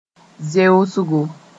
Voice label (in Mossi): "zeosgo", moore